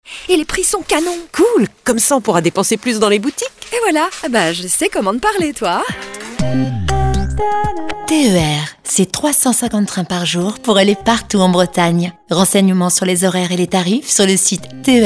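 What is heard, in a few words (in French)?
posee souriante, voix off féminine; SNCF TER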